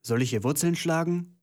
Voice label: german voice; wyrmsun